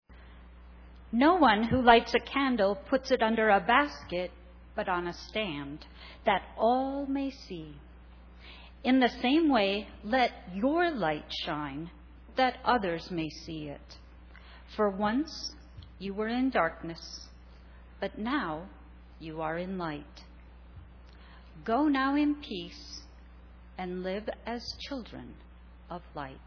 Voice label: Narration; Cantata